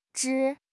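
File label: "zhi1", Chinese; HSK4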